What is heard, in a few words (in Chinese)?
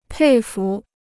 "佩服", Chinese